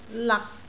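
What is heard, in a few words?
"lak6", Cantonese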